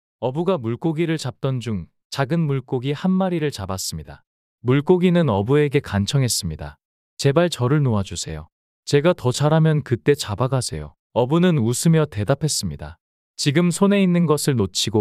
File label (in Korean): 이야기 남자; 어부와 작은 물꼬기